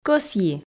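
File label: "кӧсйи", Komi, female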